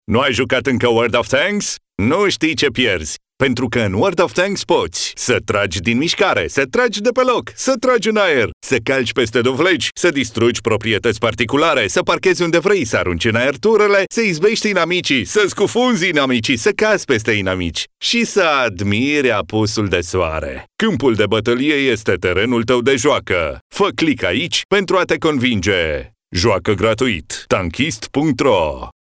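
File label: Romanian, male, TV commercial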